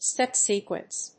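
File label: "Step sequence", English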